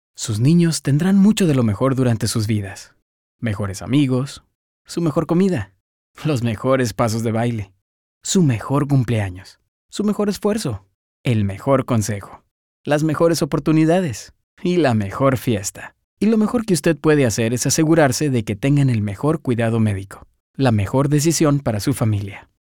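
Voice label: Warm, Spanish voice-over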